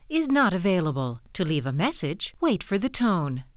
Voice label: Audix Greeting, avaya